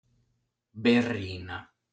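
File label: "Berrina", Sardinian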